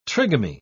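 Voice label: "trigamy", British English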